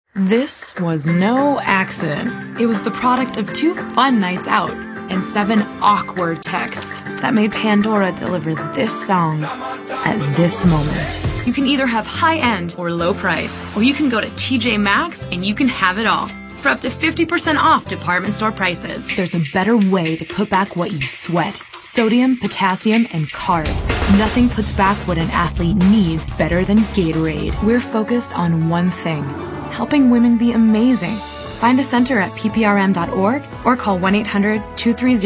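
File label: female, late 20s mid 30s, contemporary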